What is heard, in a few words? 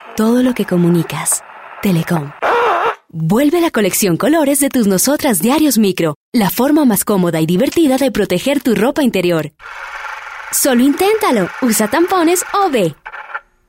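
Spanish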